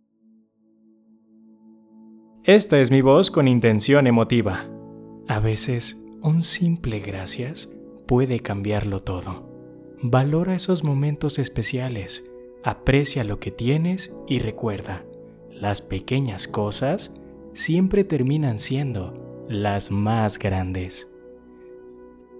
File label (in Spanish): Emotiva Musicalizado, locutor